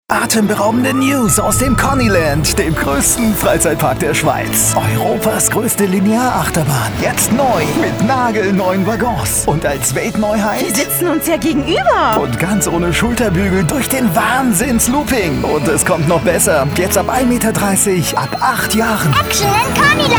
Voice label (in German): Funkspot